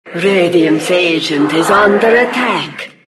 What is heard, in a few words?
announcer attack; Dota 2; diretide 2012